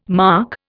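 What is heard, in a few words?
"marc", Indian English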